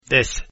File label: 10 croatian, numbers